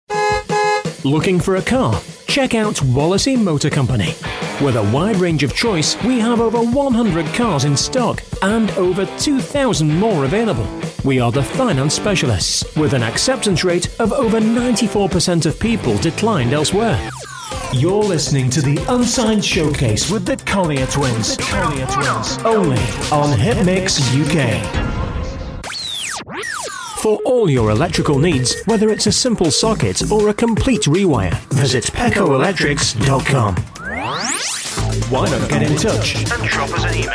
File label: continuity radio